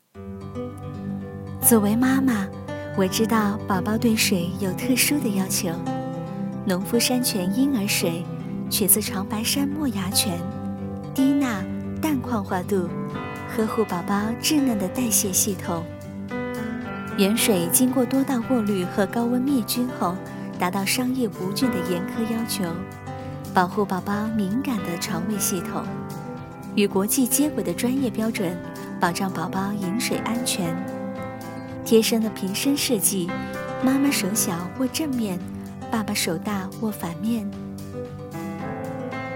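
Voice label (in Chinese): 国语 女声 国语广告 干音 积极向上 亲切甜美; 矿泉水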